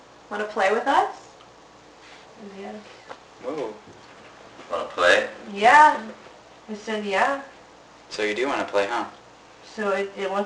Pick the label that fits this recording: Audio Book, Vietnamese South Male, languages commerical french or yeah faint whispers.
yeah faint whispers